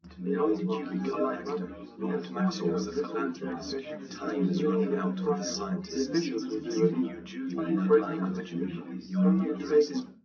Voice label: male4; SiSEC10; underdetermined